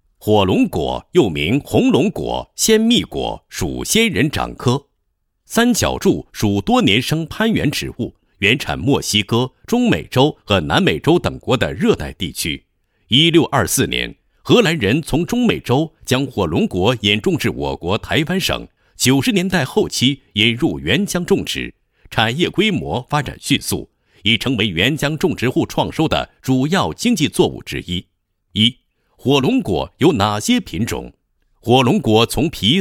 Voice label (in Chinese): 男, 农业解说 火龙果栽培技术配音; 元江